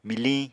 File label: "miliñ", Apatani